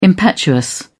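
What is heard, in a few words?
"impetuous", English, British English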